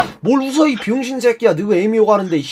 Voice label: scout jeers